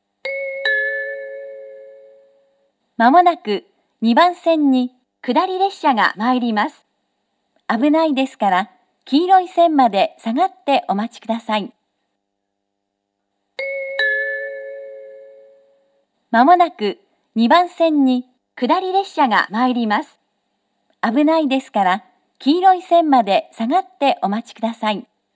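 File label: platform 2 down approaching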